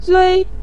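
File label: "zui" neutral tone, Mandarin Chinese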